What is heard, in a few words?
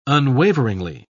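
"unwaveringly", English, British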